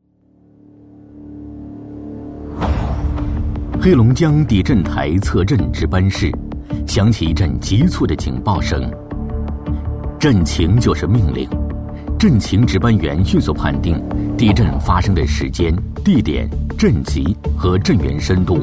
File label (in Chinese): 男 汇报片 紧张急促, 历史沧桑 大气浑厚; 黑龙江地震应急演练